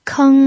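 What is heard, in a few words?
"keng" first tone, pinyin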